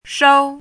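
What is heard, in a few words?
"shou1" Mandarin Chinese, high level tone; chinese-voice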